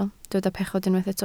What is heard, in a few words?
"pechod", Welsh